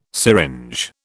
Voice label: "syringe", British